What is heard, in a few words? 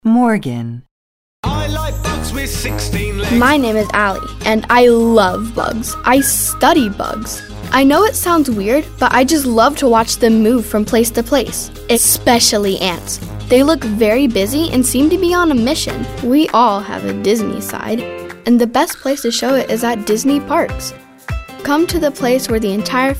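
Showcase, kind hearted, soft spoken kid next door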